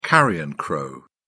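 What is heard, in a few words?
"carrion crow", English, British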